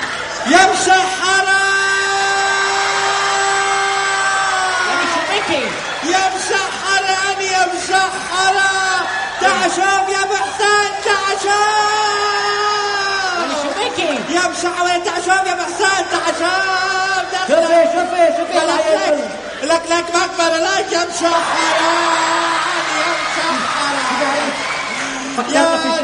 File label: arabic comedy; Funny